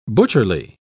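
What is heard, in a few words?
"butcherly", English, British English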